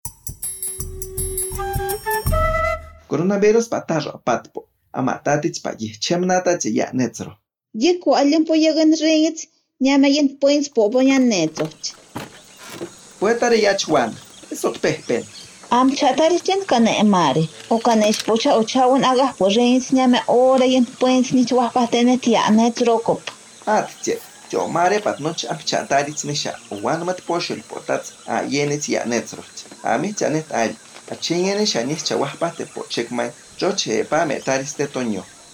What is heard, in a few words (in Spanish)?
yanesha 2 voces, spot de radio; entrega de bienes y alimentos; medidas de prevencion